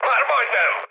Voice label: near waypoint, mood high, speech hun; War Thunder